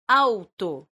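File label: "aŭto", esperanto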